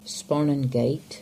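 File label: "Sparnon Gate", English; place-names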